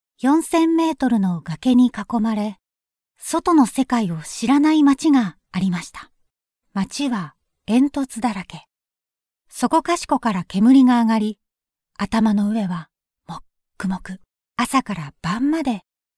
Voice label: female japanese voice over narrative

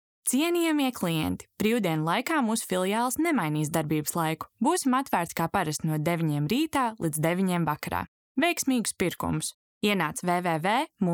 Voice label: female latvian voice over narrative

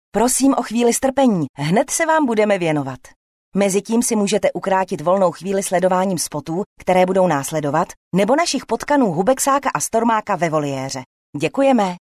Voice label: female czech voice over sales

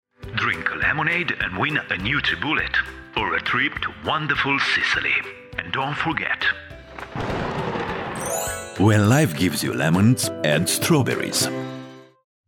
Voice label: male romanian voice over talent english

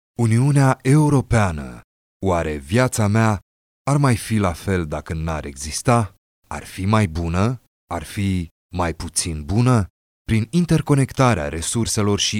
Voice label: male romanian voice over narrative

